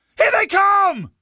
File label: here they come, male; Half-Life 2